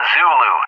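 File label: "Zulu", English; JTAC; Alphabet; DCS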